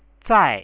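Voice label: "zai" Chinese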